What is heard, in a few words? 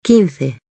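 "quince", Spanish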